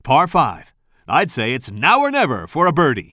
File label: commentator